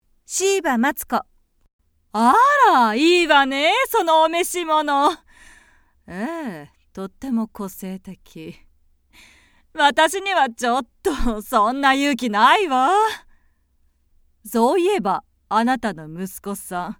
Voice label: 韓国ドラマ風マダム; 名乗り＋韓国ドラマ風マダム

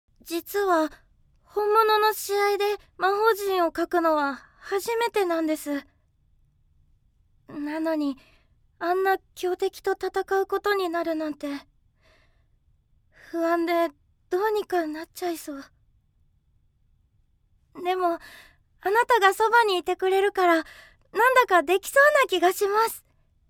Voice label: 魔法少女

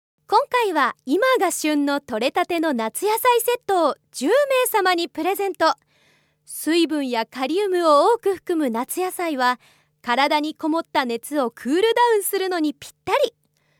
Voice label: ラジオ告知

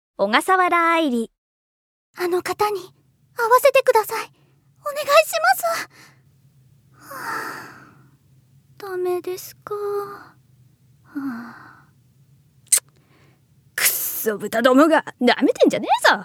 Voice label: 少女(二面性); 大阪府; 関西弁

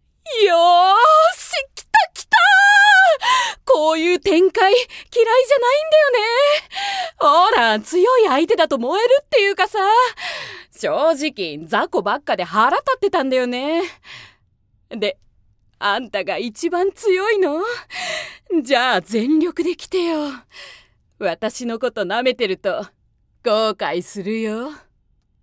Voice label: 女戦士

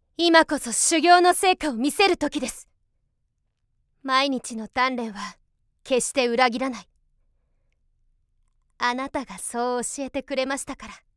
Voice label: 真面目な女剣士

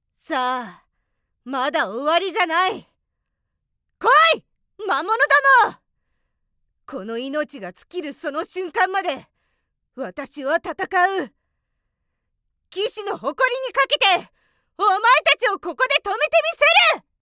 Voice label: 女騎士

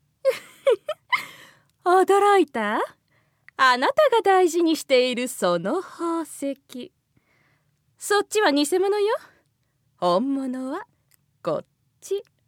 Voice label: 女怪盗

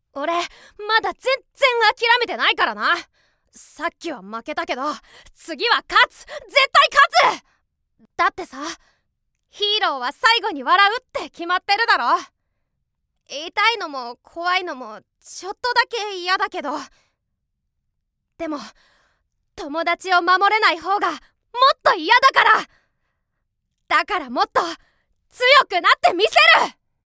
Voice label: 熱血少年

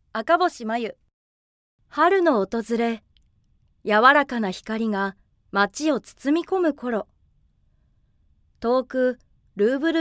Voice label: 美術館PR

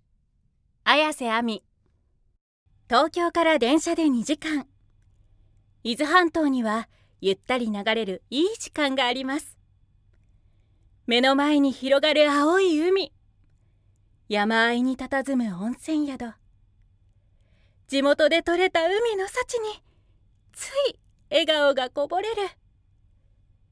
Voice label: ゲームCM(しっかり)

